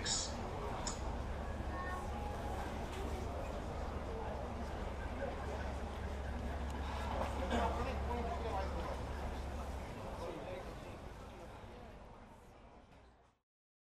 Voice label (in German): last call Flight Lanzarote, Flughafen Ansagen; Inter Canarias 524